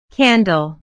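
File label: "candle", English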